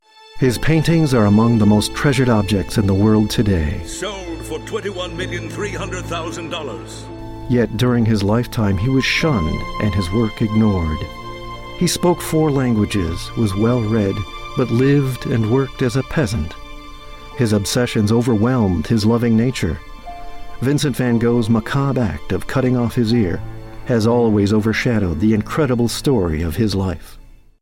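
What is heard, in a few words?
narrations, voice actor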